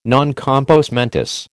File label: "non compos mentis", American